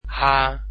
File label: "h", Dutch alphabet